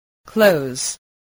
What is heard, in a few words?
"close", spelling